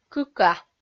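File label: "кыка", Komi-Zyrian, female; kpv audio dict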